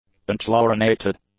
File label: "unchlorinated", English; adjectives